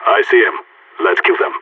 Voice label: radio enemy spotted; Counter Strike; CS:GO; phoenix